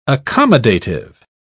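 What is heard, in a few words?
"accommodative", British English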